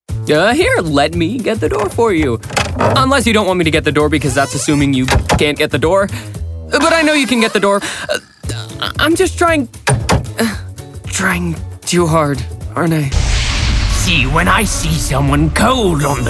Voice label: animation; voice over demos